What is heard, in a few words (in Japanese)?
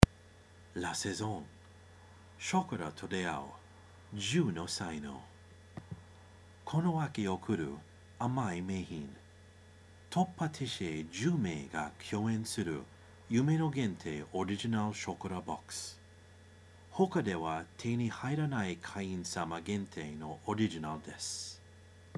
Nihongo